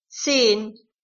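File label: "zehn", German, ten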